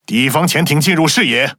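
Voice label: Speech Detection Enemy Sub, Chinese; World Of Warships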